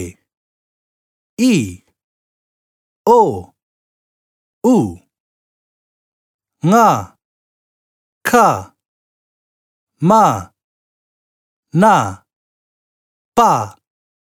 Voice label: Cook Islands Māori alphabet male voice